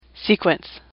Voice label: "sequence", English; AWL

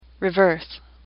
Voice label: "reverse", English; AWL

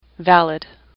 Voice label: "valid", English; AWL

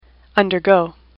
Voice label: "undergo", English; AWL

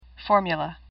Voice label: "formula", English; AWL; sublist 1

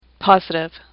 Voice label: "positive", English; AWL

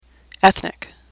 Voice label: "ethnic", English; AWL; sublist 4

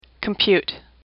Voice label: "compute", English; AWL

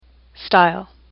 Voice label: "style", English; AWL

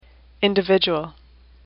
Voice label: "individual", English; AWL; sublist 1

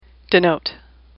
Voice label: "denote", English; AWL

